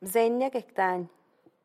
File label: I arrived at the top of the mountain, Zapotec; Bzenya guecy dany